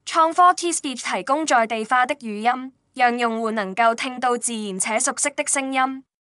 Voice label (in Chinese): 中文廣東